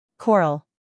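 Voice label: "humor", British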